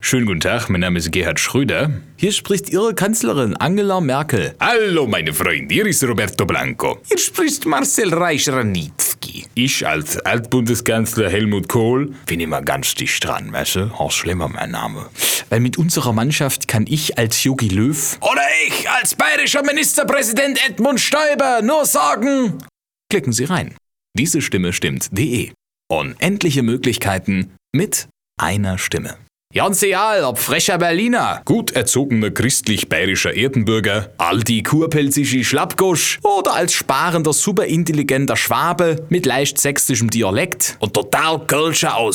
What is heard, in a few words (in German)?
Imitationen Dialekte